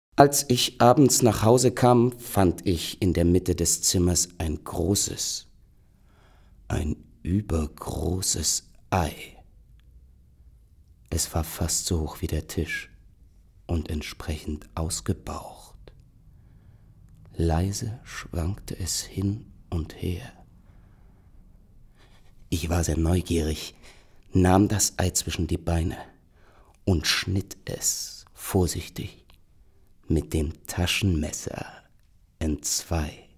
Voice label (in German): liest Kafka; LOFT VOICES